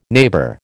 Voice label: "neighbour", American

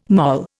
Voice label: "moll", Indian

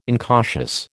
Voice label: "incautious", American

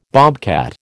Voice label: "bobcat", American

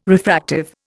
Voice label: "refractive", Indian

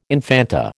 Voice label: "infanta", American

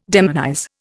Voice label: "demonize", Indian

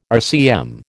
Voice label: "RCM", American

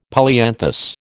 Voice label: "polyanthus", American

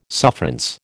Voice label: "sufferance", American

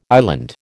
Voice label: "island", American

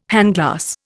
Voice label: "handglass", Indian

